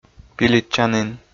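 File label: "пилитчанін", Komi, male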